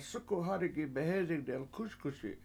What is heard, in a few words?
"sUku7 hAdigi behEzing delkUSkuSi7", washo; That Short Small Dog